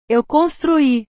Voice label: "eu construí", portugues; portugues/verbos

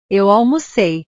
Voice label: "eu almocei", portugues

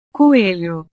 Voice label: "coelho", portugues

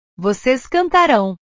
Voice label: "voces cantarao", portugues; portugues/verbos

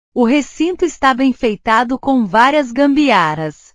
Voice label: "gambiarra", portugues

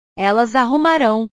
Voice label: "elas arrumarao", portugues

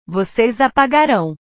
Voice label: "voces apagarao", portugues; Ustedes borrarán

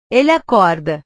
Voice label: "ele acorda", portugues

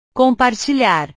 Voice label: "compartilhar", portugues; portugues/verbos

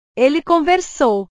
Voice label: "ele conversou", portugues; conversar; portugues/verbos

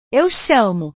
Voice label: "eu chamo", portugues; chamar; portugues/verbos

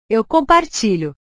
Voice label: "eu compartilho", portugues; compartilhar; portugues/verbos

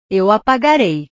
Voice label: "eu apagarei", portugues

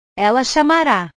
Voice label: "ela chamara", portugues; portugues/verbos

